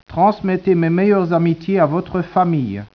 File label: speech, French